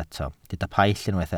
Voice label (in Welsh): "paill", Welsh